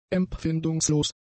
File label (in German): "empfindungslos", german; adjectives